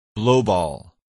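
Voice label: "blowball", English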